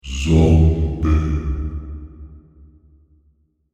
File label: Zombie - Spoken - Undead Voice; Zomby Sound Effects; horror sound effect